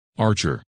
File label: "Archer", men names